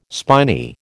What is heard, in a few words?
"spiny", American